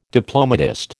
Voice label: "diplomatist", American